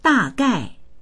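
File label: "da4gai4", Chinese; dàgài; 大概; おおよその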